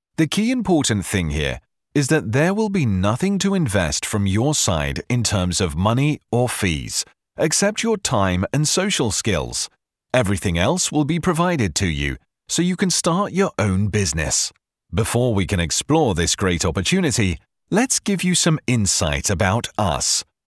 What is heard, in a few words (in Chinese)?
外籍英式男; 外籍英式男0.5